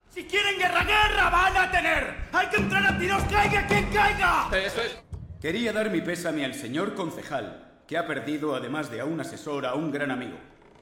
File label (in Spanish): Reel Doblaje